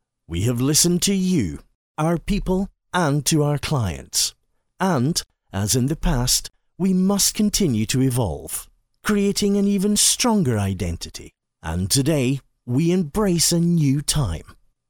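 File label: English Scottish, male, voice over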